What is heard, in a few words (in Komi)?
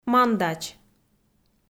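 "мандач", Komi-Zyrian, female; kpv audio dict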